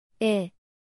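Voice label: "ㅔ", Korean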